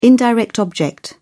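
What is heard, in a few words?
"indirect object", British English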